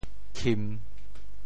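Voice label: "khim3", Teochew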